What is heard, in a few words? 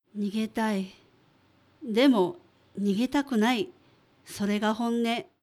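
female; 逃げたい。でも、逃げたくない。それが本音。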